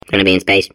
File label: babble; portal2